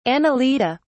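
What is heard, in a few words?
"annelida", British English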